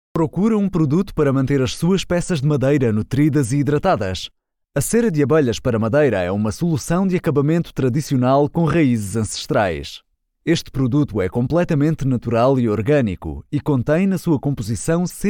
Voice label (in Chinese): 葡萄牙语男声; 外籍